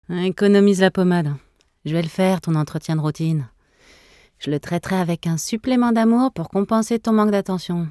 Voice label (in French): Mecano blasee vaisseau spatiale jeu video